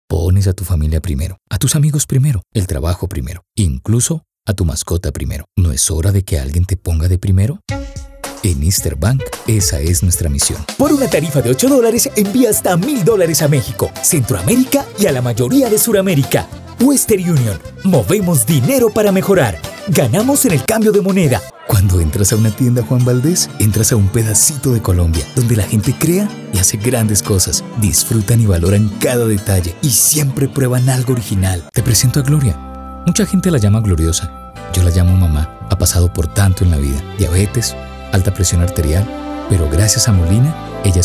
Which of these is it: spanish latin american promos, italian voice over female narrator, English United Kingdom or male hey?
spanish latin american promos